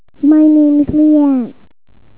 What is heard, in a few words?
clean speech